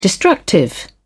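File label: "destructive", British English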